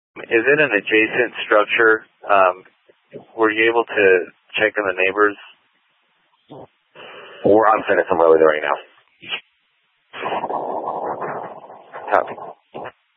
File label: Fire Dpts VHF 154.800 Patch, scanner